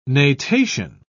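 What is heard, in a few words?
"natation", British English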